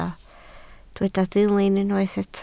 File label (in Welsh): "dilyn" Welsh